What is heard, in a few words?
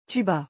"tuba", French, musical instruments; vocabulary